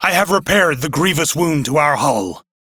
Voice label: Crew Fix Breach, Male; Warframe; Railjack Crew; Arbiters Hexis